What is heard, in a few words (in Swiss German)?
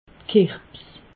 "kirbs", Alsace